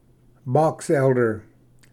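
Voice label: "boxelder", English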